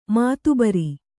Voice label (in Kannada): "ಮಾತಬರ", Kannada